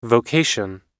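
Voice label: "vocation", American English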